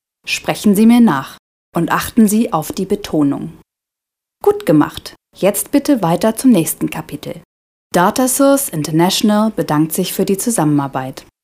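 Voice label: German female corporate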